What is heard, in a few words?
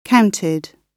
"counted", gb